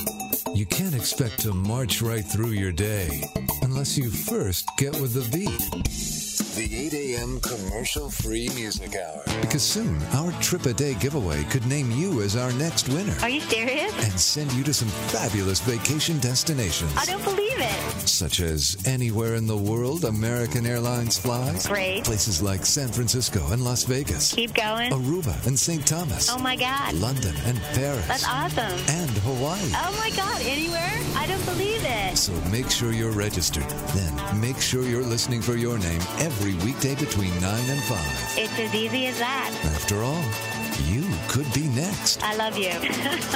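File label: smooth adult contemporary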